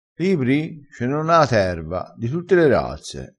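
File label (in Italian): "razza", vocabolario dialettale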